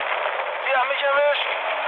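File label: German aircraft destroyed mood med, speech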